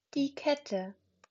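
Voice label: "Kette", German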